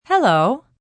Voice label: Hello F, Greetings, female; Greetings And Goodbye; Voice Box

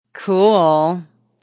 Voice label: Woman Says Cool Cheesy; Talking

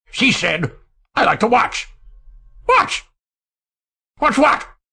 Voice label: She Said Watch What, Male

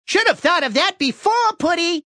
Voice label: Shoulda Puddy, Male; Talking

